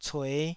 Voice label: "cue5", Taiwanese syllable

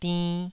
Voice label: "dinn2", Taiwanese

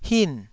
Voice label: "hin4", Taiwanese syllable

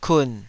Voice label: "kun3", Taiwanese syllable

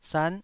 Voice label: "san9", taiwanese syllable

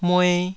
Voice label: "mue2", taiwanese syllables

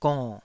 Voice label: "gonn3", taiwanese

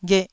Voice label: "gheh1", Taiwanese syllable

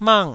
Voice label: "mang4", Taiwanese syllable

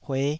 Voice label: "hueh5", taiwanese

